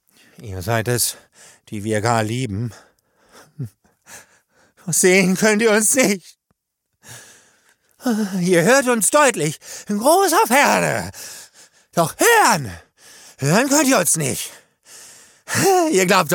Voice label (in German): Psycho, maenner